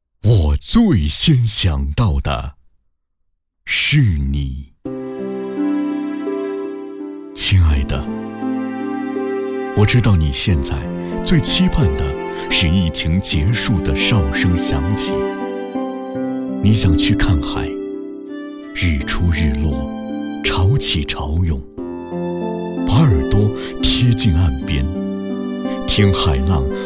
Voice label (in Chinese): 男-浪漫朗诵片-浪漫细腻; 最先想到的是你